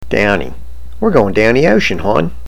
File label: "downy", baltimorese